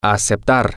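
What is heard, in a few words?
Spanish, to accept, male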